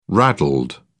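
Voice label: "raddled", British English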